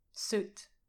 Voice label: "soot", english pronunciation